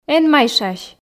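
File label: эн майшась, Komi, female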